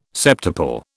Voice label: "septuple", British